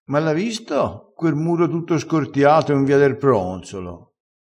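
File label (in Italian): "scortia", dialettale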